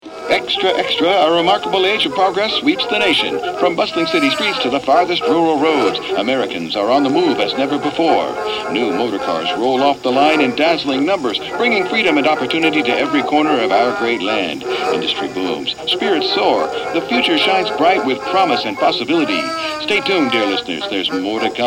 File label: EXTRA EXTRA, Vintage 1920s Newsreel, Older Male Voice Over; Podcasting